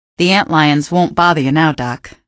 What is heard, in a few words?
pheropod nag, male; Garry's Mod; hl2; bugbait